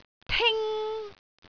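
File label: "ting1", Cantonese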